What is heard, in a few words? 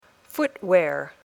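"footwear", English word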